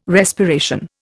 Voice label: "respiration", Indian

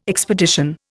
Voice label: "expedition", Indian

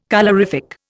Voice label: "colorific", Indian

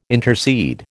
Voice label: "intercede", American

